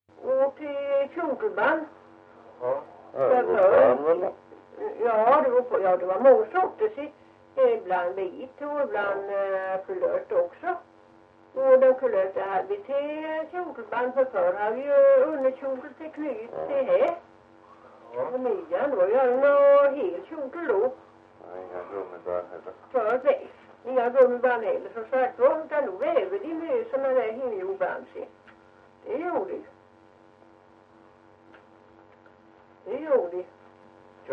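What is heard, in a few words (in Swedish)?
Ventlinge Öland, dialekter; Folkminnen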